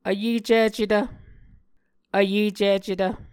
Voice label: "vyecicetv", Muscogee; Mvskoke Words with Voicing Consonants; Martin, Jack B., and Margaret McKane Mauldin. 2023. A Dictionary of Creek/Muskogee; SIL International

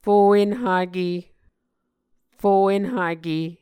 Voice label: "fo enhake", Muscogee

